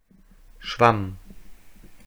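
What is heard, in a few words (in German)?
"schwamm", German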